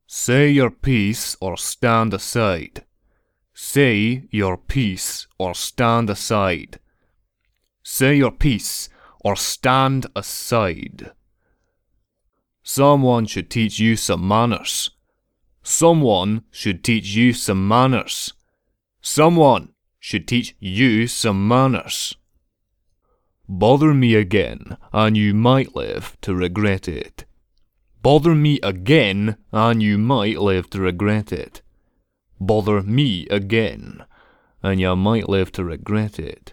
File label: Nord unhappy, voice acting; nord voice auditions